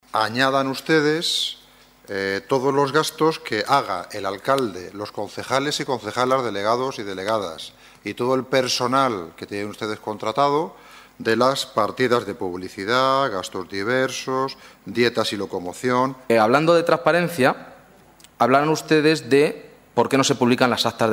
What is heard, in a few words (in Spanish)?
noticias